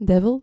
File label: "devil", dutch